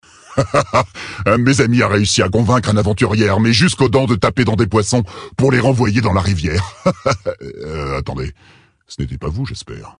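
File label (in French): Male Blague, voix françaises; Tauren Haut Roc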